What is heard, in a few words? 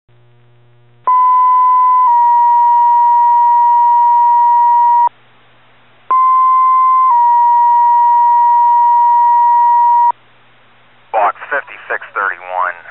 aircraft down dispatch